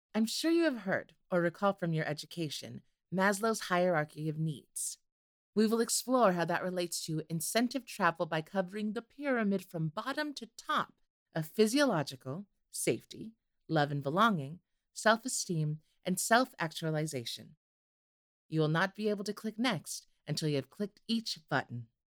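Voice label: voice over Spanish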